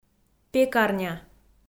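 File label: "пекарня", Komi, female; audio dict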